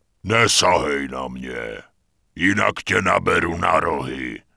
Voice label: Tauren Pissed; wowpreklad; czwow

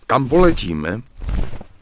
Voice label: Human Male out of range; Error Messages; wowpreklad; czwow; MaleErrorMessages

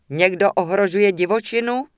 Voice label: Human Female Incoming; wowpreklad; czwow; HumanVocalFemale

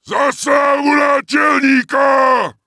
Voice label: Wolfrider Warcry; wowpreklad; czwow

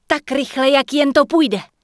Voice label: Human Female Flee; wowpreklad; czwow; HumanVocalFemale

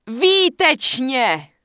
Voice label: Night Elf Female Sentinel NPC Farewell; wowpreklad; czwow

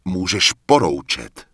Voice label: Night Elf Male ability cooldown; Error Messages; wowpreklad; czwow

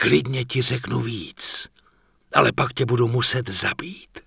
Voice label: Night Elf Male Pissed; wowpreklad; czwow; NightElfVocalMale

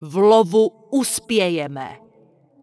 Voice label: Night Elf Female Goodbye; wowpreklad; czwow; NightElfVocalFemale

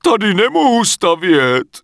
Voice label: Human Male cant create here; Error Messages; wowpreklad; czwow; MaleErrorMessages

